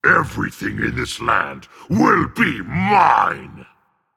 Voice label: emote dragon; smite; fafnir